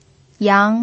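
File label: "yang2", Mandarin Chinese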